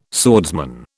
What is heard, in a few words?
"swordsman", British